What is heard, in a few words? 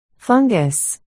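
"fungus", spoken word, articulation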